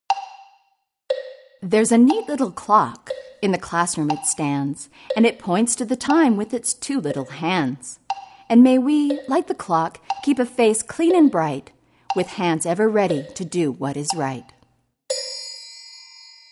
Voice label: Little Clock, rhyme; Starfall